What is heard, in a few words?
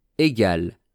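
"egal", French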